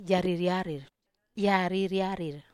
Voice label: "aririarir", Wamesa